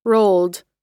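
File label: "rolled", American English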